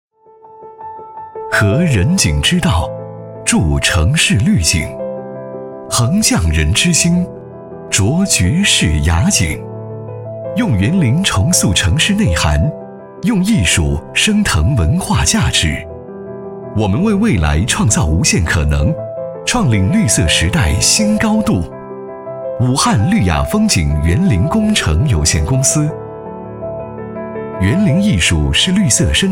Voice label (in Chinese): 宣传片 企业 科技; 武汉绿雅